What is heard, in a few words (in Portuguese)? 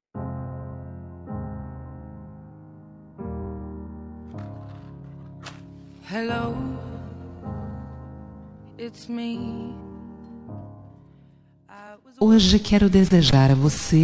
Aniversário de Pessoa Especial – Voz Feminina; Telemensagens para todas as ocasiões